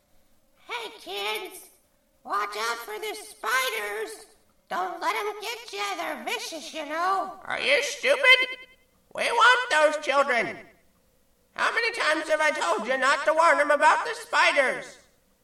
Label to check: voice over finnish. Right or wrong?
Wrong